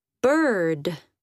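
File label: "bird", spoken word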